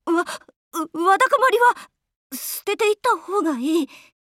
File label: touch normal, jp; chasers; bellona